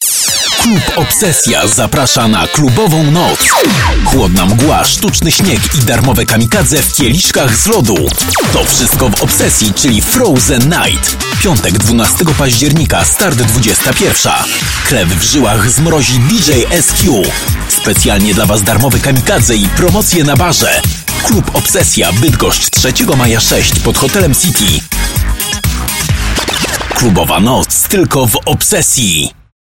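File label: Polish Male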